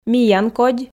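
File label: "миян кодь", Komi, female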